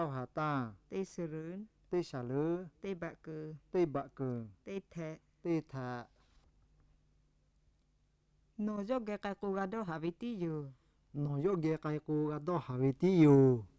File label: Black Lahu